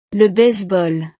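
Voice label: "le baseball", French; deportes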